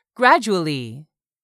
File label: "GRADUALLY", english; 3000 words